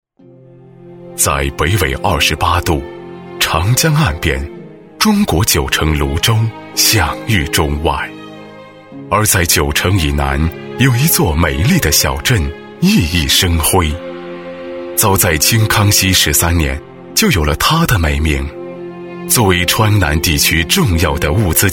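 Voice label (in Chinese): 国语 男声 专题片 深沉浑厚 大气浑厚磁性 沉稳 科技感; 大渡口镇-政府专题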